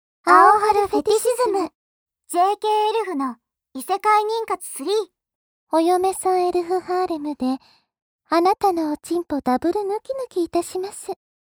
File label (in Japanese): タイトルコール